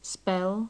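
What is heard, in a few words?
"spell", British English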